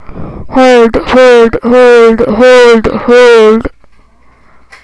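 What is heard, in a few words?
"hold", spoken word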